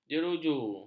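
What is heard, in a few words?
"jeruju", Malay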